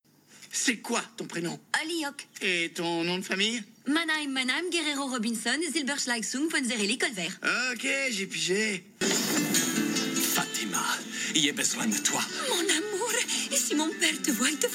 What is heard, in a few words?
french animation